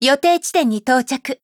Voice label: Voice, JP; Helen